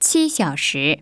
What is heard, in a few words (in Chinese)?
chinese 5 hour; samsung YP-U5; Audio User Interface